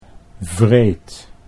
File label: "wreed", Dutch